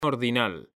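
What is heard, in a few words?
"ordinal", Spanish, male; mekanta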